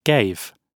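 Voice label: "gave", British English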